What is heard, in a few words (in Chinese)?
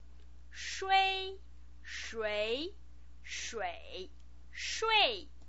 "shui", pinyin